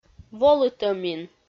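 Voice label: "волытӧмин", Komi, female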